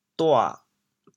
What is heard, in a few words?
"dua3", chaoyang